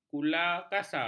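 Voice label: "kulakasar", Malay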